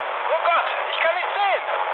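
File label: German searchlight mood med, speech; War Thunder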